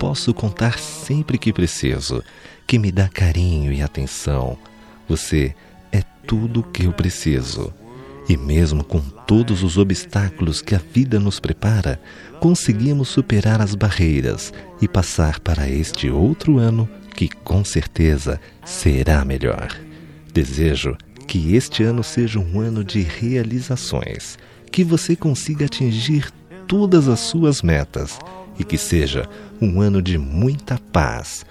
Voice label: Feliz Ano Novo Romantico

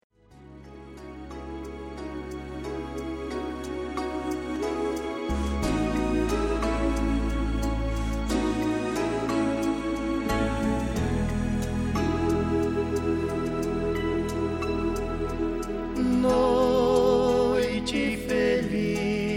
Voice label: Feliz Natal Com Gozacao

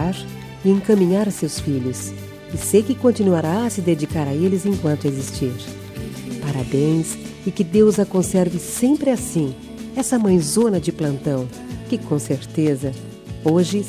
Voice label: Dia das Maes pra Amiga, F

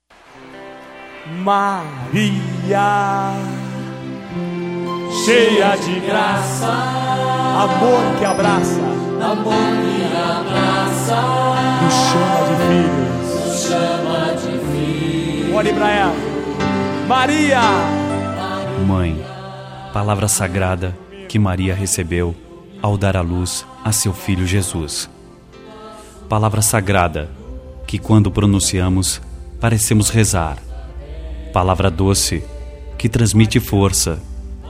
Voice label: Dia das Maes Religiosa, M

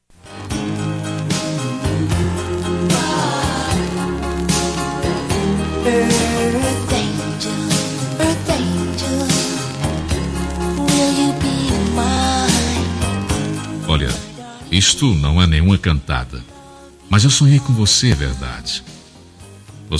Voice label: Romântica

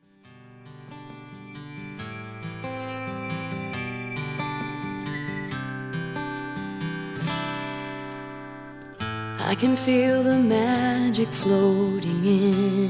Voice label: Feliz Natal Romantico

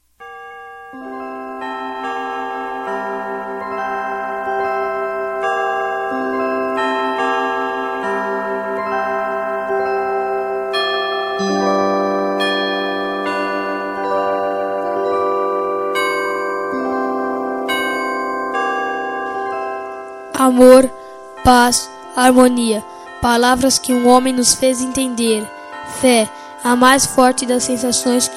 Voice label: Feliz Natal Infantil

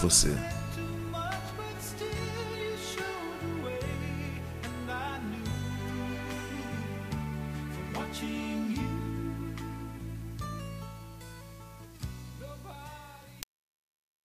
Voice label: Amizade Tributo a Amizade, M

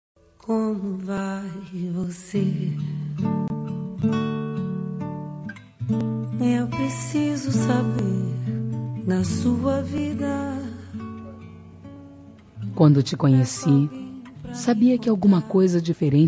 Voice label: Romântica Estou Apaixonada

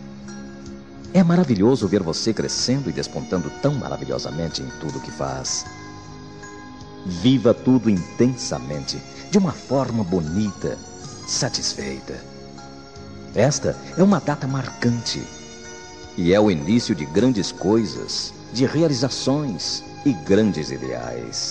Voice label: Aniv. de 15 Anos M Amigo

